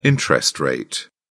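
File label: "interest rate", English, British English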